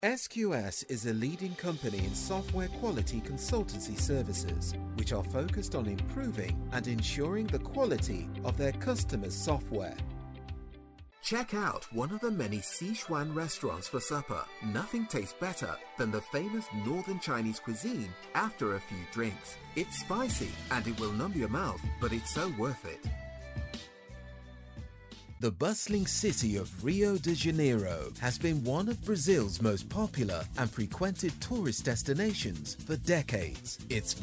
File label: Corporate